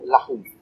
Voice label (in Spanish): "diez", Maya; Números